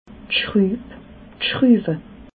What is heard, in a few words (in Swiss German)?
"schrub", alsace